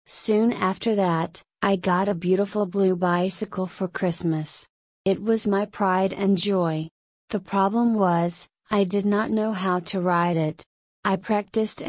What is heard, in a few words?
substitute voice rate slow pitch medium